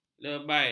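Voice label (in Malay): "lebai", Malay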